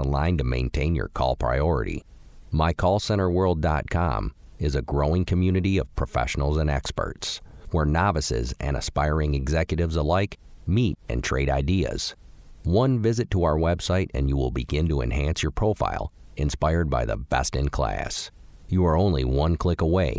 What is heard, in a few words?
Phone Hold IVR, Male